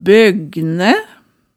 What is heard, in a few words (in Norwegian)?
"bøgnæ", hemsedalsmaalet tale